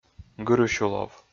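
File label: "гырысюлов", Komi, male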